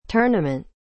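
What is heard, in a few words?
"Tournament", English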